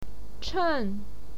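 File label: "chen", Mandarin Chinese, fourth tone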